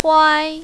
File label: "huai5", mandarin, female